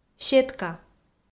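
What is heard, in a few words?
"сетка", Komi, female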